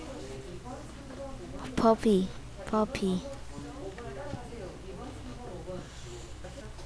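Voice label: "puppy", spoken word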